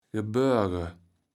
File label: "geboerge", Kaevels Platt